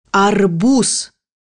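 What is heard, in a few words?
"watermelon", Russian; bukvar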